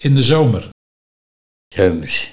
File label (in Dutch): "Seumers", Nieuw Lekkerlands dialect; in de zomer